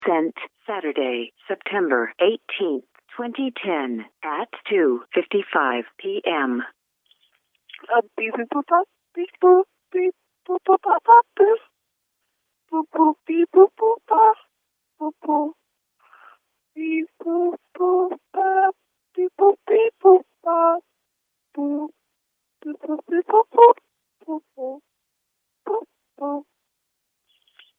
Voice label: voicemail